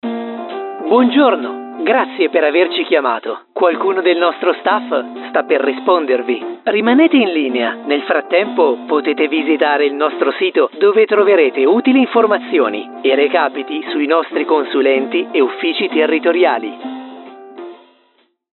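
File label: italian interactive voice response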